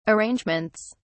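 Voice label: "arrangements", British English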